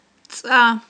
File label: "цIа", Aghul